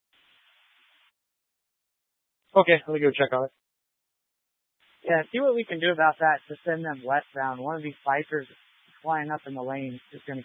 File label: Tactical Incidents, scanner